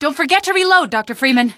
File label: dont forget reload, female; npc; Garry's Mod; hl2